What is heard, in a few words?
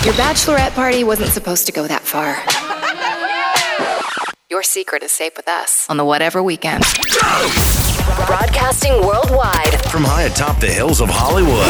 radio, radio imaging